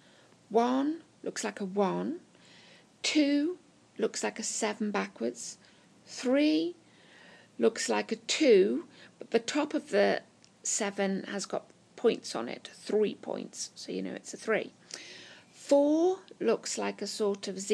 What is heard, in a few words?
arabic numbers